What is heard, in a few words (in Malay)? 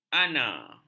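"ana", Malay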